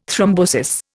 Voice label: "thrombosis", Indian